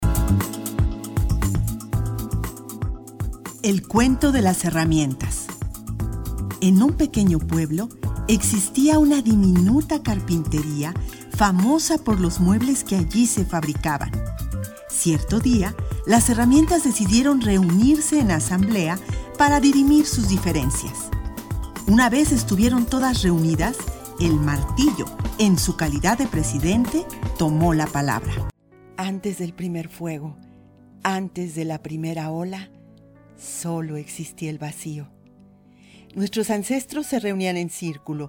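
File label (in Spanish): narración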